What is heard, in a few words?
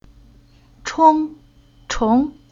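"Cong", Chinese syllable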